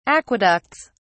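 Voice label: "aqueducts", British English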